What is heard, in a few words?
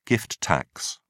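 "gift tax", British English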